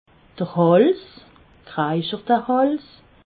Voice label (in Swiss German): "hals", alsace